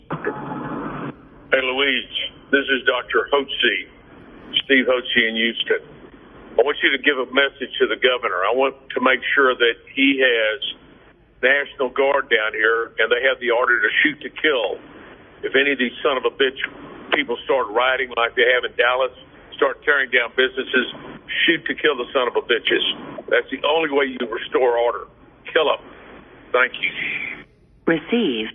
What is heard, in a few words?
voicemail